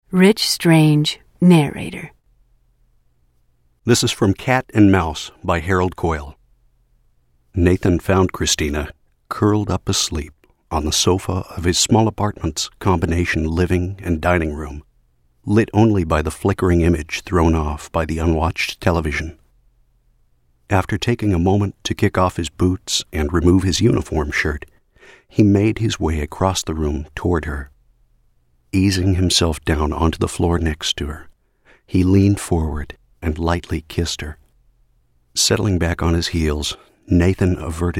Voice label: Audiobook